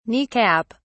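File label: "knee cap", English, British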